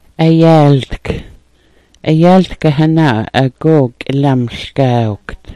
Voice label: "ayaaltk", Smalgyax